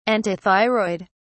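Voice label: "antithyroid", British English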